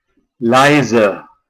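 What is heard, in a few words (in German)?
"leise", German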